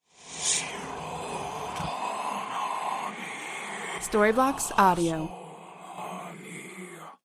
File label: evil spell voice